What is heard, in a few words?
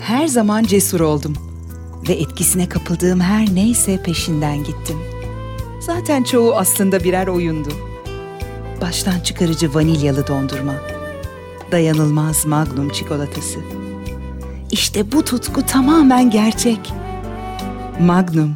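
Turkish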